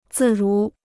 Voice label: "自如", Chinese

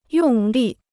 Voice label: "用力", Chinese

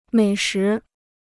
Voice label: "美食", Chinese

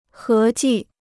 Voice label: "合计", Chinese

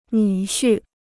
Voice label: "女婿", Chinese

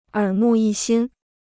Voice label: "耳目一新", Chinese

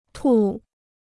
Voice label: "吐", Chinese

